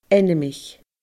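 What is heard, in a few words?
"ainmich", Scottish Gaelic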